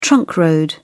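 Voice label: "trunk road", British English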